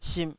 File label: "simh2", Taiwanese syllable